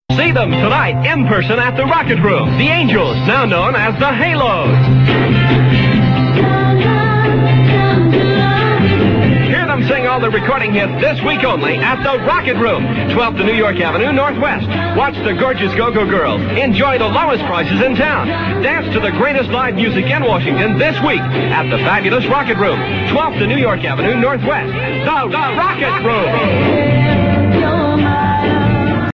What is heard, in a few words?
spot; 1966; rocket room nightclub